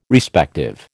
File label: "Respective", American